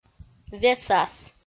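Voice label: "весас", Komi-Zyrian, female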